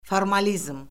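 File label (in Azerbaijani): "formalizm", Azerbaijani; Orfoqrafiya Lüğəti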